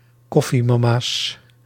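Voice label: "koffiemama's", Dutch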